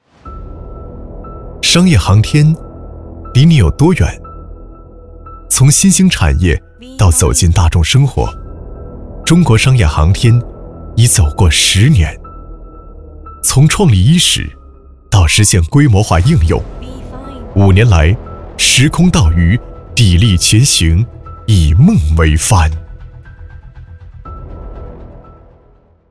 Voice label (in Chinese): 男 科技时尚; 时空道宇